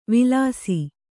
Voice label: "ವಿಲಾಸಿ", Kannada

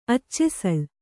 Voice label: "ಅಚ್ಚೆಸಳು", Kannada

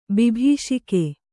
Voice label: "ಬಿಭೀಷಿಕೆ", Kannada

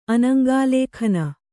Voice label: "ಅನಂಗಾಲೇಖನ", Kannada

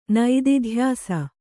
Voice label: "ನಿದಿಧ್ಯಾಸ", Kannada

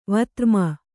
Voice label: "ವಿಧರ್ಮಿ", Kannada

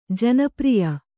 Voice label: "ಜನಪ್ರಿಯ", Kannada

